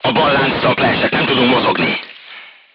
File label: voice message left track knocked down, crew tanks hungarian